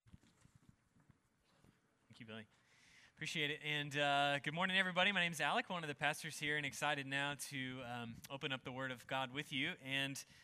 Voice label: Sermon full service English